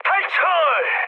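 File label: Korean bailout mood high, speech; War Thunder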